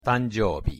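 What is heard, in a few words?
"tanjobi", Japanese pronunciation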